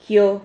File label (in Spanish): "jio", Silabas